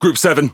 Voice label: Speech Pilots Torpedo, EN GB; World Of Warships